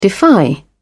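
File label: "defy", British English, dictionary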